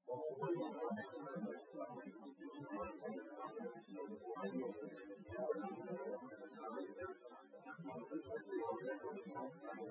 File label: male4 synthconv 250ms 5cm src